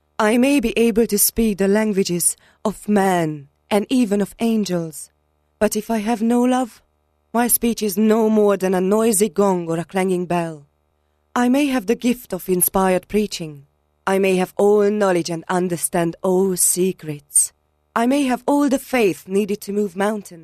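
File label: Hungarian English, Female, 20s-40s; Eastern European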